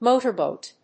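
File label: "motorboat", English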